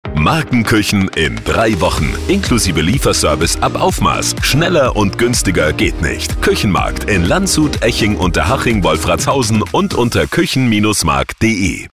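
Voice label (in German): Werbespot Küchenmarkt; Kuechenmarkt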